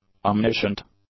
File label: "omniscient", English; adjectives